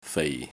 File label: "fei4", cantonese